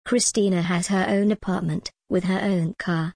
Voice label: en gb christina has her own apartment with her own car